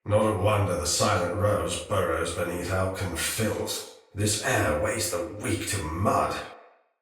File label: Patrol Spoken, Soldier Male; Sinecure Soldier Male A